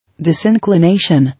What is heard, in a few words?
"Disinclination", English